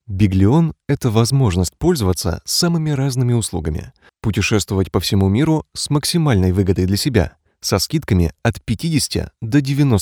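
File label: Russian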